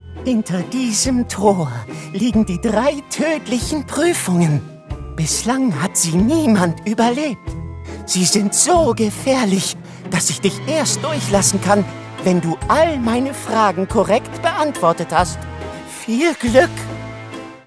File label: german animation